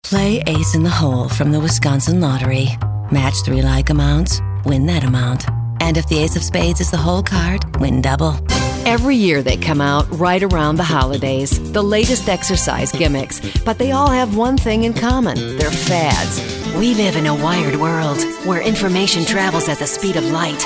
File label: Commercial